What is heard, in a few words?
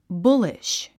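"bullish", English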